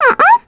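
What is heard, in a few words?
vox Deny, voices heroes English; Mirianne; kings raid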